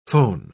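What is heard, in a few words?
"phon-", British English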